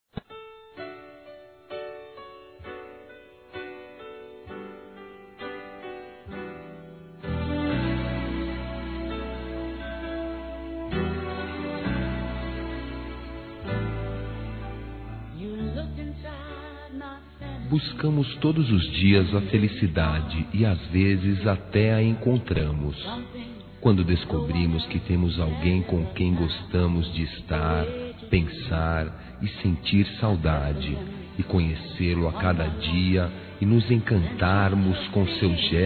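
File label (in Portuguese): romantico suave, Telemensagens, Românticas masculino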